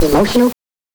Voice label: VOX EMOTIONAL; NES DPCM; MIDINES

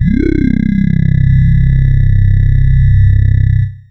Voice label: FORMANT, Funky Vocals; USB Soundscan vol.18; AKAI; COMPUTER

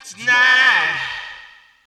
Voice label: Male FX Vocals; Houseworx

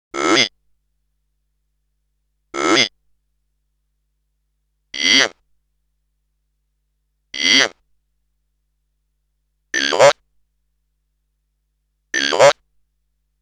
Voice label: Computer Speech; Bizarre Planet

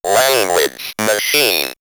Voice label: Robot Language Machine, robot vox; X-Static Goldmine

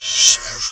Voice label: VOICE, VOICE-FX

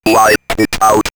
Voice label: Robot Wipe It Out, robot vox; X-Static Goldmine

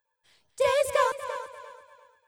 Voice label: DISCO, SHOUTS, Funky Vocals; USB Soundscan vol.18; AKAI

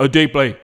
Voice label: "no strange", shouts; Best Service; Hyper Dance

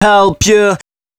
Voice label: male 130, Dance Ultra Voice, short hooks; Mutekki Media; Dance Ultra Voice VOL-1

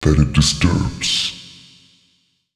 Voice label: male, voice; FX; Mutekki Media; Dance Ultra Voice VOL-1

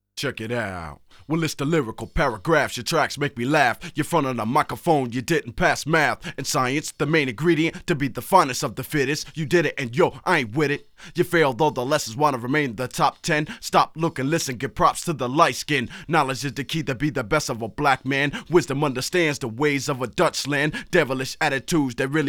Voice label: Lyrical Paragraph, Rapsody Vocals; Masterbits CLIMAX 6

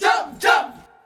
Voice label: "Jump", Vocals Male; World Pop

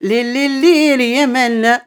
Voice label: OLD WOMAN, Arabian Traditions

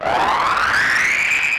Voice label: EMS voice; SPEECH; Syntec; Wall of Sounds; TECHNO-BOX

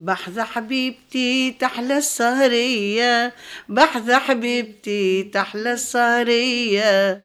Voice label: OLD WOMAN, Arabian Traditions

